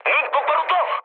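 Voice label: Korean player friendly fire mood med; War Thunder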